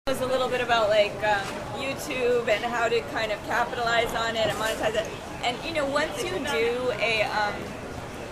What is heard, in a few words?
Singlish